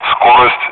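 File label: Speech, Russian, air traffic control; DCS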